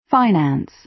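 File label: "finance", American English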